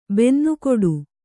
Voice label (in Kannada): "ಬನ್ನಗೆಡು", Kannada